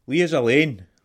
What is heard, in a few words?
leave me alone scots